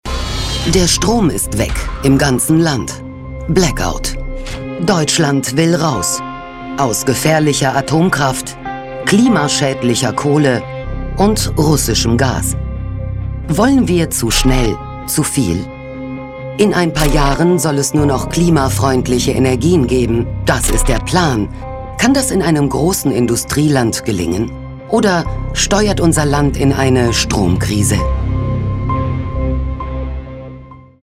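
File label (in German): Doku, Sprecher; Doku WiSo Blackout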